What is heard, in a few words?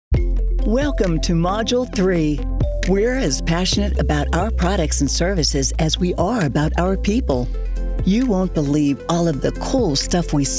Narration